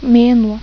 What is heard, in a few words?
"menuo", Lithuanian